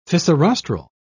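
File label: "fissirostral", British English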